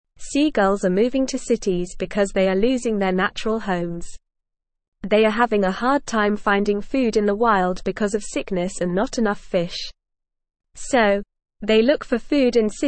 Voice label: English NORMAL Reading; Seagulls are moving to cities and need our help